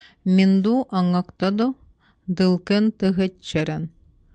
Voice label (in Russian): ЭВЕНСКИЙСКИЙ; Нос